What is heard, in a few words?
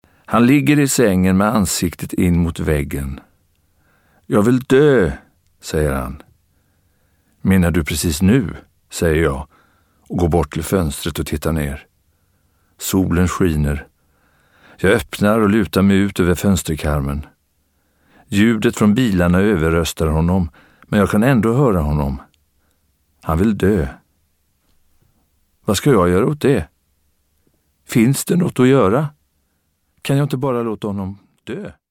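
Swedish audiobook male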